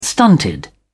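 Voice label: "stunted", English, uk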